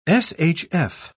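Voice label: "shf", British English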